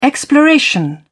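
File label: "exploration", English, British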